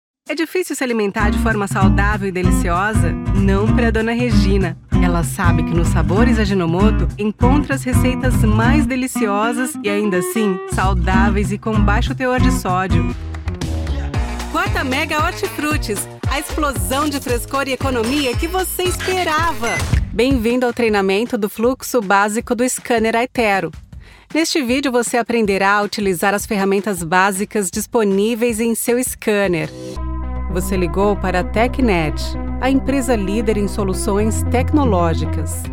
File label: portuguese brazilian commercial